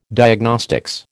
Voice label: "Diagnostics", American